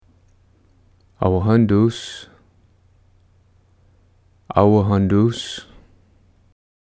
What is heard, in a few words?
beetle panawaphskek; insects-animals